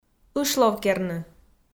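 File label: "ышловкерны", Komi, female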